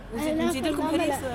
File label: woman in cafe